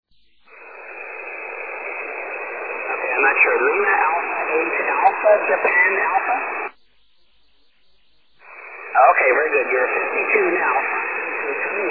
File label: 6m ssb